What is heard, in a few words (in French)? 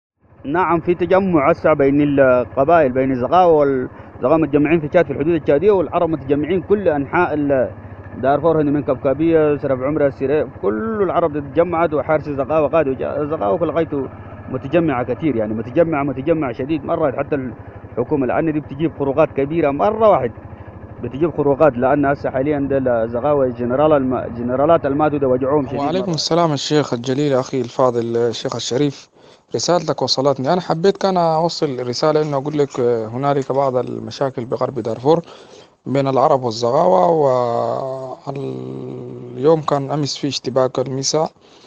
Message Arabe